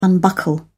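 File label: "unbuckle", British English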